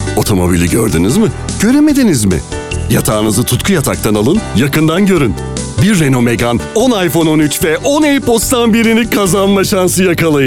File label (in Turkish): ENERJIK